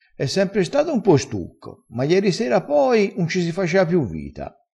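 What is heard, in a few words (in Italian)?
"stucco", vocabolario dialettale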